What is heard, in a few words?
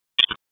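Raleigh Police North District Tactical; wake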